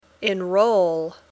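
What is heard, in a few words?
"enroll", English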